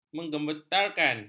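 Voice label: "menggementarkan", Malay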